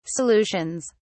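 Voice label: "solutions", English, British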